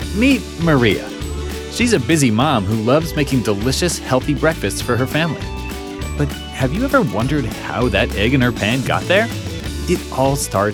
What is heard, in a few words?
Whiteboard Explainer